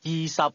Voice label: "twenty", Cantonese